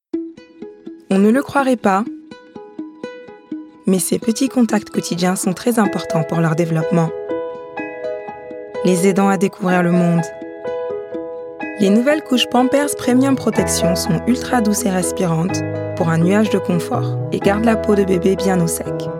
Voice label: French